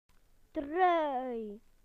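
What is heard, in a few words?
"jumper", British English; PictureDictionary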